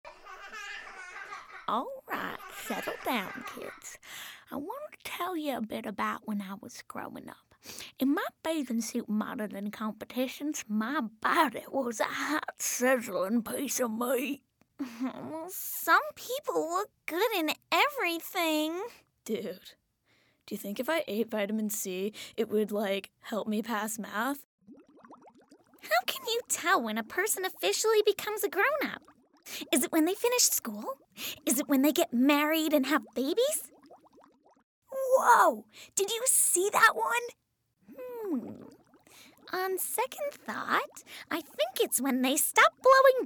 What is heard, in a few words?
Animation